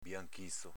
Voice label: "bianchizo", dialetto veneto